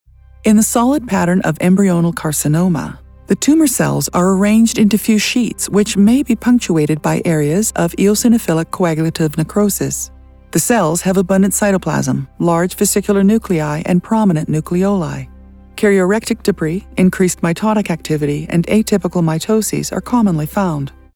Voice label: Medical Voiceover, female voice; Embryonal Carcinoma spot